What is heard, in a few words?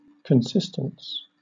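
"consistence", British English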